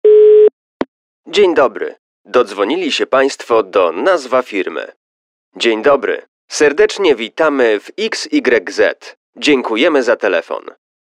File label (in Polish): ivr powitanie